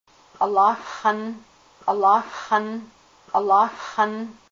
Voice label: fence, Haida language